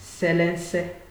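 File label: "selense", Nigerian Pidgin, male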